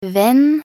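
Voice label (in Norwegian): "venn" Norwegian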